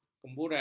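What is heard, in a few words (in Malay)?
"kemburan", Malay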